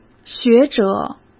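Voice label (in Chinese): "学者", Mandarin Chinese; hsk; chinese-flashcards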